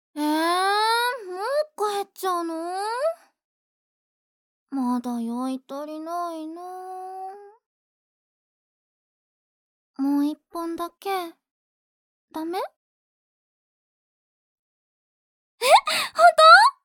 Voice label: キャバ嬢

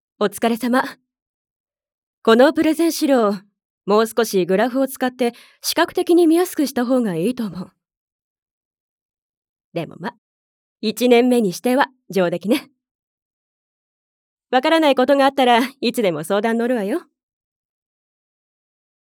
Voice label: クールな上司

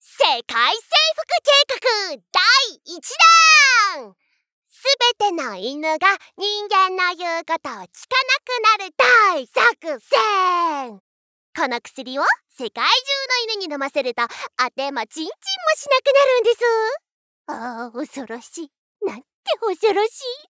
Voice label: 人外

